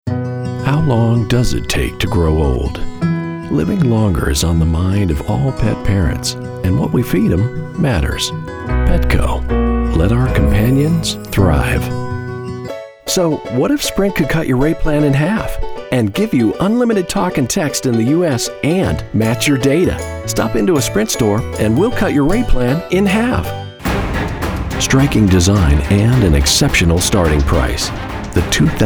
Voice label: Commercial